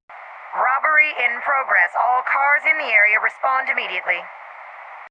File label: Police Robbery, Police dispatch radio, female; VoiceBox